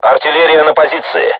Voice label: voice message art charged, crew tanks russian

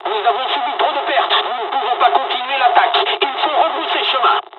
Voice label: dialog, dialogs french; midway; War Thunder

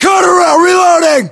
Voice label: US inform reloading, battlechatter, english

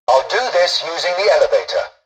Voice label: tutorial dialogue, english; War Thunder

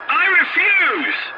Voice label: voice message no, english; War Thunder

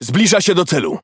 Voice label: Speech Pilots Torpedo Engage, PL; World Of Warships

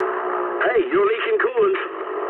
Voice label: eng damaged water mood low, speech eng

